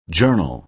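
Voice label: "journal", American English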